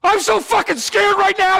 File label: scared